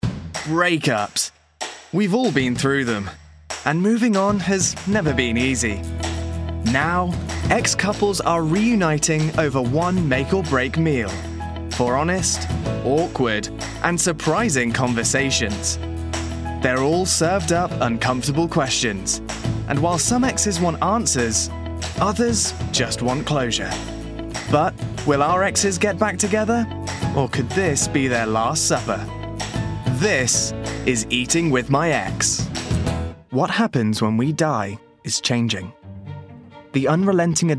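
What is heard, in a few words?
English UK, Narrative; GoLocalise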